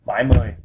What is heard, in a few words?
seventy vietnamese